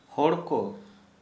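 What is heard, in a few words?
Santali, male, people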